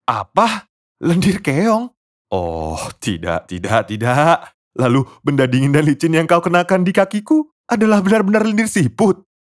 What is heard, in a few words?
Character Indonesia